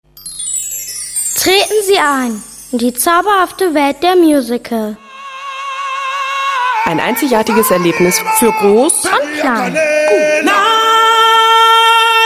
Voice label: spot